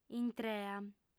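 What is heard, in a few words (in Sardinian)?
"intrea", female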